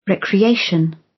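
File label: "recreation", British; Life, leisure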